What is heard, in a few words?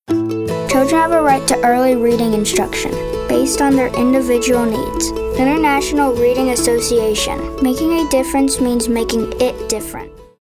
reading